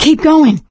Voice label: emphatic go quiet, survivor, voice; source engine; left 4 dead 2; producer